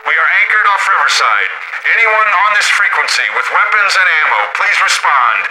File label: radio initial broadcast; Left 4 Dead 2; left4dead2 dlc3; boatman; npc